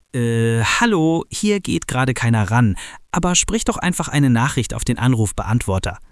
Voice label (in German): anrufbeantworter; telefonmann; greetings